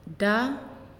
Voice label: "You", Naasioi